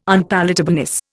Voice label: "unpalatableness", Indian